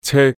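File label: "ce1", Cantonese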